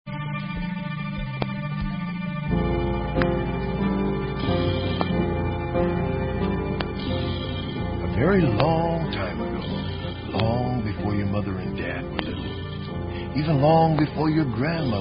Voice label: Narration; our dinosaur friends the early years